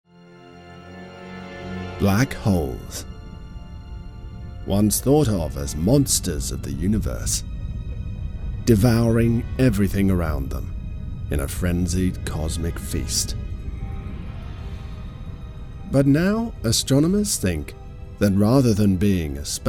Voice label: Narrative Read with sfx; Black Holes

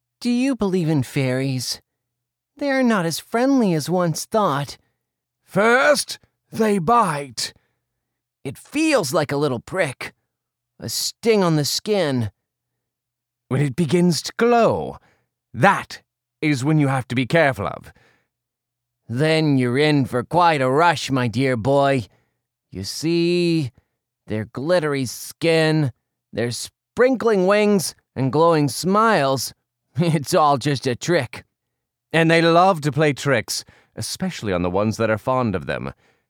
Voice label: Character Range

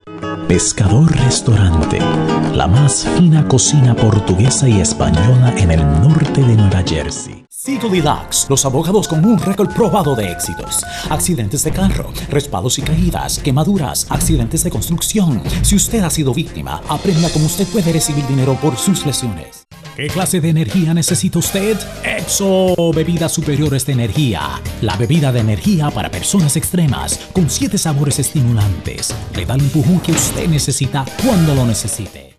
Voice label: Spanish Voice Overs, Audio Mix

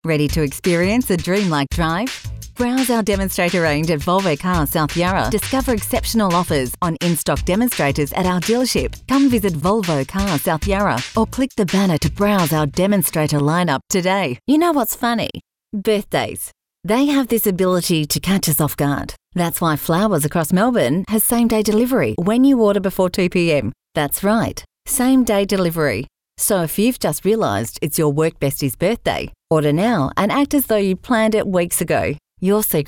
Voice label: mixed commercial; Chevron

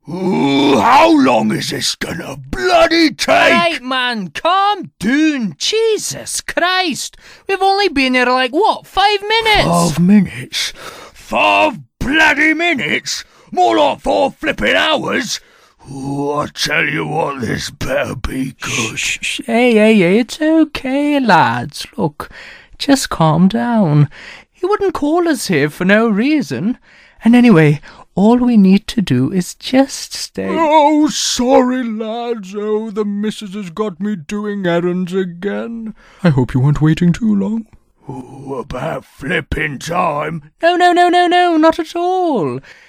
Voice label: Dry Reel

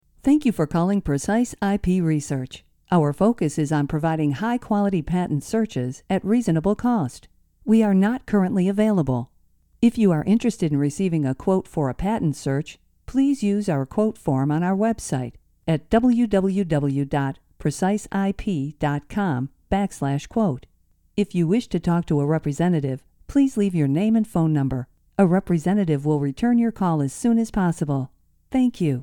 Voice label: Voice Mail; Precise IP Research

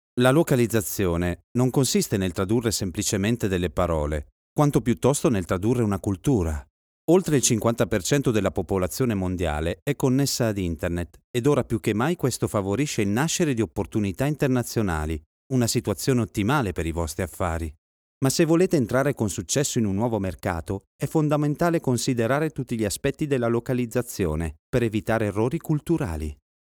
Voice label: LOCALIZATION PRESENTATION EDUCATIONAL

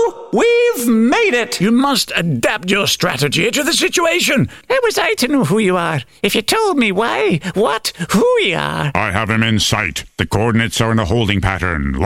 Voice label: Video Game Voices

